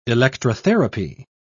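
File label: "electrotherapy", British English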